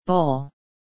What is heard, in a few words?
"bowl", word